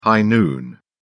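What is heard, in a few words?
"high noon", English, British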